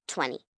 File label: Numbers "Twenty", 1 child, girl counting; Talking; Voice Box